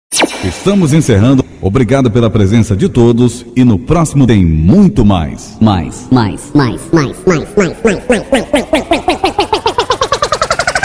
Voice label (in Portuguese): Encerramento De Festa, Vinhetas